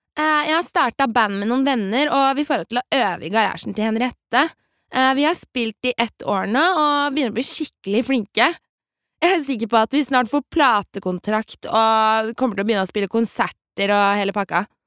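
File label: Norwegian dub girl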